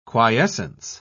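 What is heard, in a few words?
"quiescence", British English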